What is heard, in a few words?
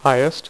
"highest", Dealer's Speech; PowerPOKER Demo